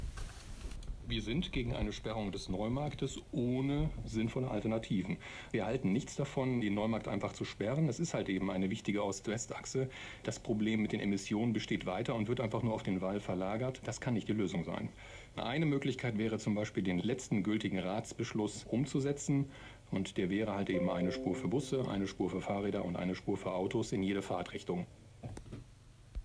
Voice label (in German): radio